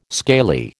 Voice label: "scaly", American